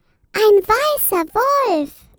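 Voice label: "white wolf", German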